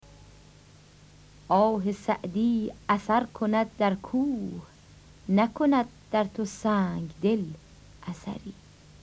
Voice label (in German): Rezitation